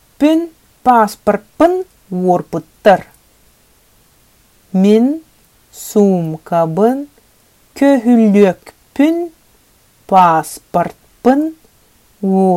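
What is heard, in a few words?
Sakha My bag wallet passport was stolen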